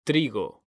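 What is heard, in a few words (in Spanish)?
"trigo", Spanish, male; mekanta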